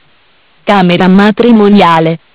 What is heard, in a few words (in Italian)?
"Camera matrimoniale", MESSAGGI VOCALI; Bentel; BLE320; Audio BGS220